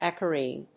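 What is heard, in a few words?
"acarine", English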